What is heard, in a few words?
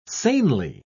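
"sanely", British English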